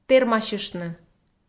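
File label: "тэрмасьыштны", Komi, female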